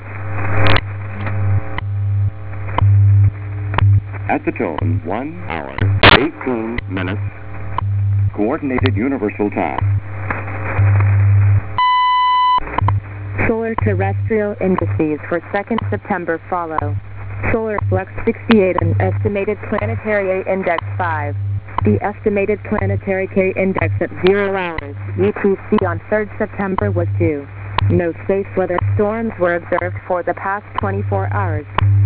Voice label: WWV 5000.0 kHz